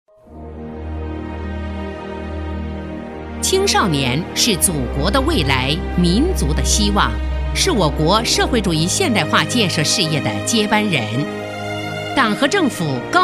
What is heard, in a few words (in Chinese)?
专题 政府 成熟, 女; 新少年